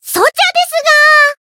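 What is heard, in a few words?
Voice heal, Japanese; myungwha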